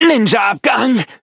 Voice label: hit, de; cookierunwiki